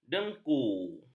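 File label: "dengu", Malay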